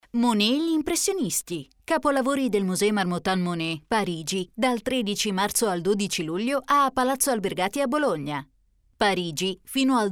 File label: italian promos